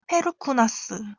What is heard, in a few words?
"Perkunas" Lithuanian